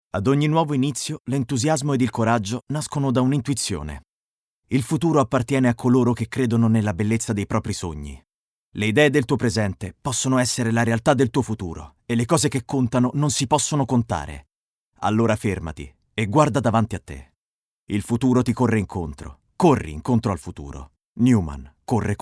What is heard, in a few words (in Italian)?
Spot Emozionale, Italian, male; commercial